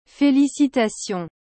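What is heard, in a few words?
"felicitations", French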